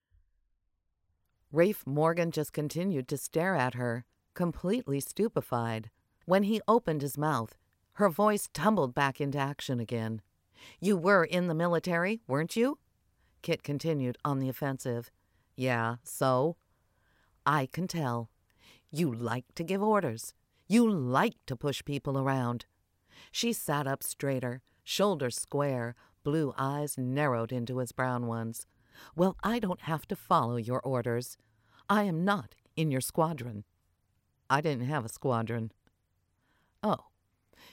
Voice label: Audiobook; Caught In A Trap

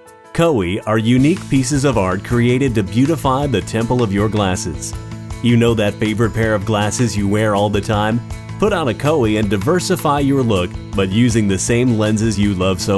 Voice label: commercial reel

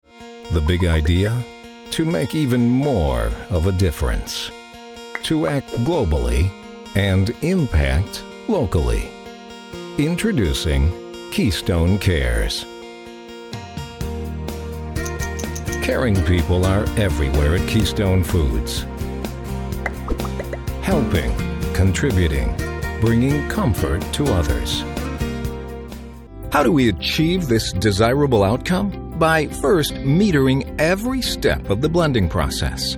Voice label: Narration Corporate

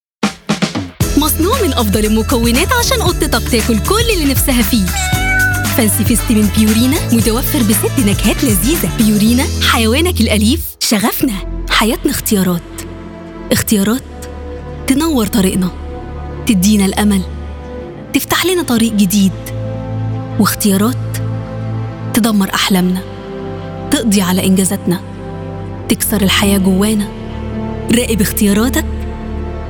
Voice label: Egyptian commercial

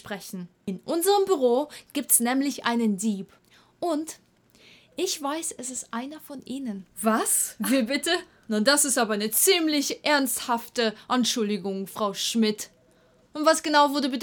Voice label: Dramatic German

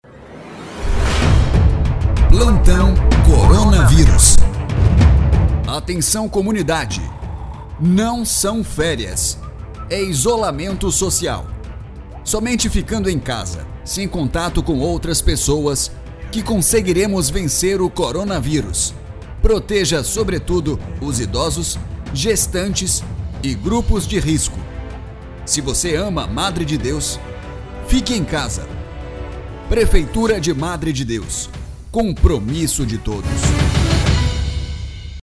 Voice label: Spot; Corona

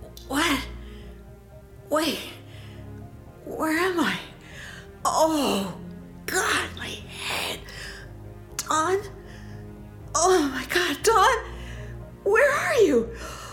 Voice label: dramatic

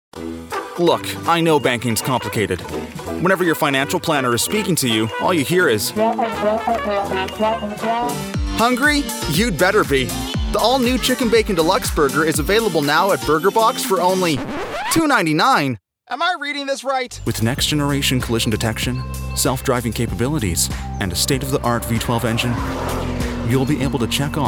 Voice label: Commercial

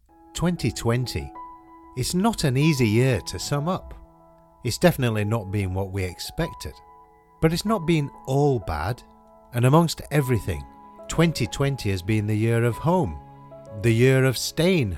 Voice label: end of year summary corporate

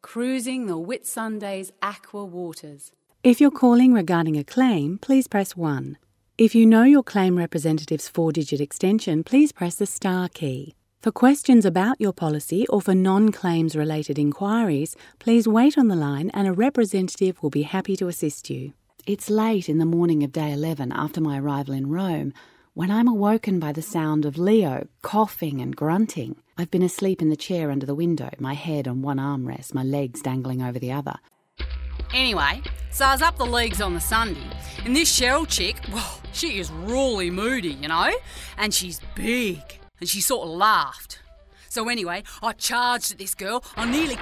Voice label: Australian voice reel

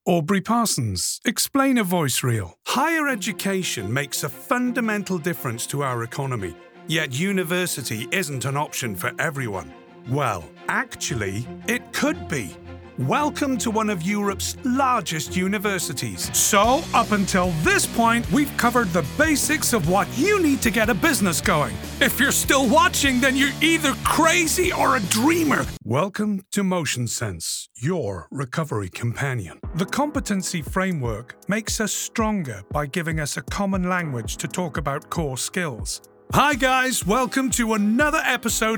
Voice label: Explainer

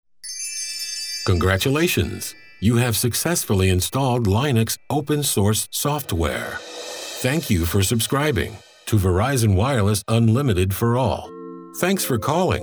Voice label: IVR

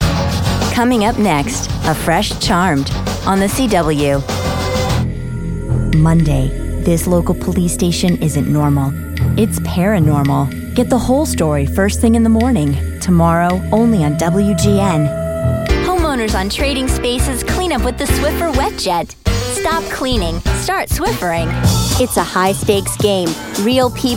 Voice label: Promo

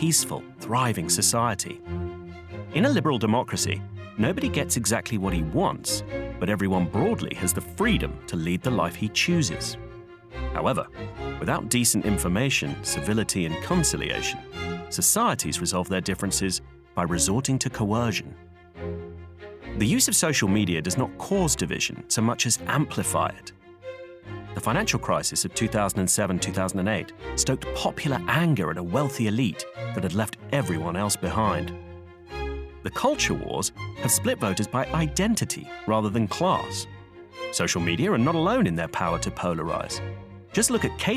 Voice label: Political Corporate Clear informative engaged

